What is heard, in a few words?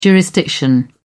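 "jurisdiction", British English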